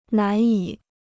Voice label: "难以", Chinese

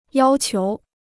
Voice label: "要求", Chinese

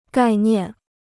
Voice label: "概念", Chinese

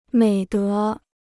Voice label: "美德", Chinese

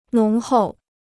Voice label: "浓厚", Chinese

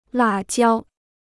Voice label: "辣椒", Chinese

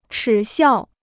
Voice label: "耻笑", Chinese

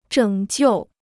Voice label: "拯救", Chinese

